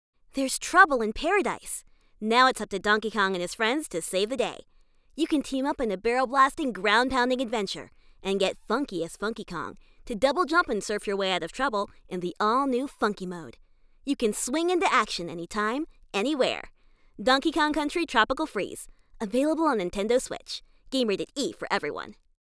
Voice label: Teen Girl Commercial Dry Sample